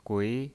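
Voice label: "gui", Mandarin Chinese, second tone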